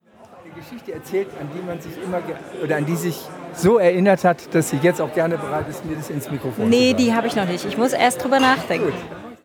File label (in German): stimme w